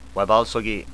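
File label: "waebalsogee", spoken word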